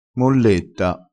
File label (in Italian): "molletta", crespinese